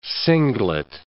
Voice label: "singlet", words